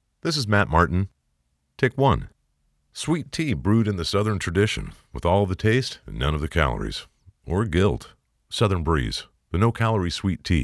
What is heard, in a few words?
Male Announcer